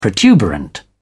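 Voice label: "protuberant", British English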